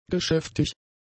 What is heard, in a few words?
"geschäftig", German; adjectives